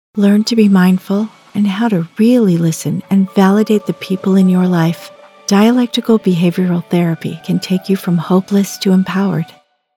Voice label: Therapy heartfelt

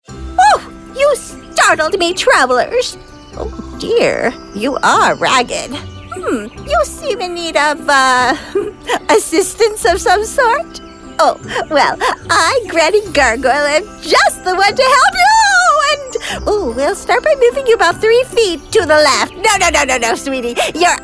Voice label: Elderly Friendly Flustered; FEMALE VOICEOVER; Granny Gargoyle

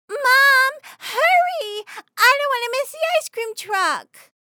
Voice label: Little Girl Anxious Demanding

